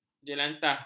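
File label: "jelantah", Malay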